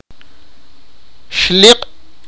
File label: sipakapense; mule